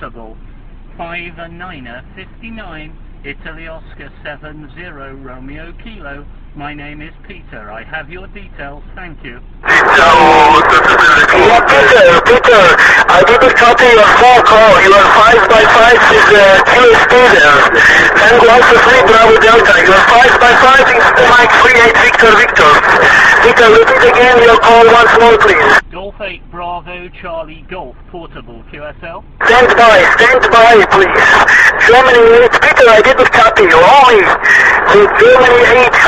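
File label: amateur radio contact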